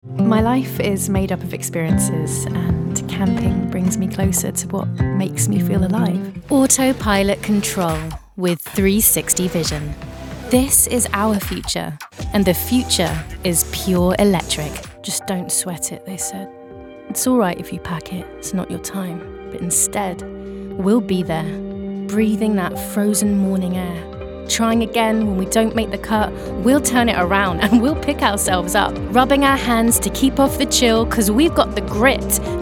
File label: Commercial